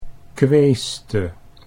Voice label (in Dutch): "queeste", Dutch